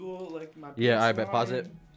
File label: recordin Mic Input; flstudio; Vocals